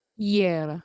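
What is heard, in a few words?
"yeh1ro", Proto-Indo-European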